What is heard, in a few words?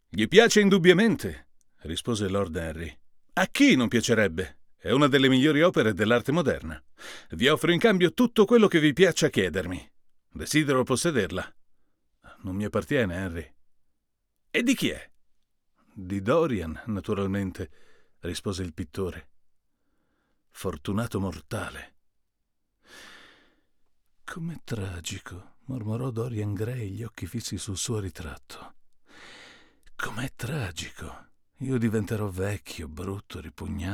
U87AI VS CAD E100s test